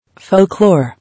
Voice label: "Folklore", English